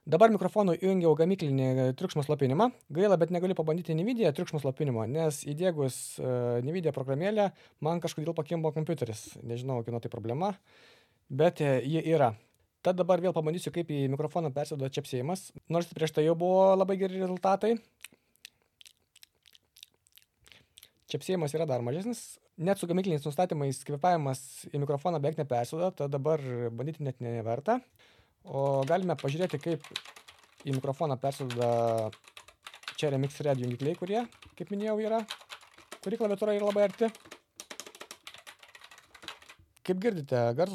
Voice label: Wave DX noise canceling, mikrofonas; elgato wave dx